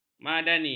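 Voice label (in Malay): "madani", Malay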